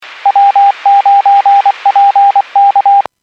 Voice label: Skywarn 146.67; Stevens Point

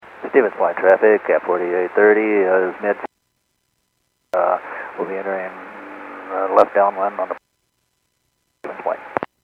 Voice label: Airports 122.7, Radio; KSTE

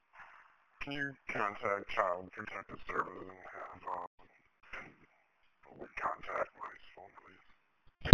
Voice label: Police Sheriff Dispatch

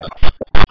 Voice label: Police Sheriff Dispatch

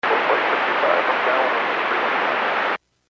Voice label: Airports 122.7, Radio; KSTE

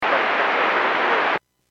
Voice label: Aviation 121.5 Emergency

